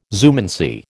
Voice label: "zoomancy", American